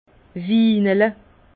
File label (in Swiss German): "winele", Bas Rhin; vin